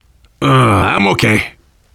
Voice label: getting revived, voice, biker